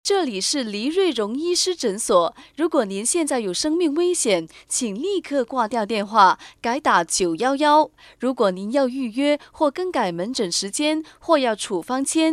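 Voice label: Chinese IVR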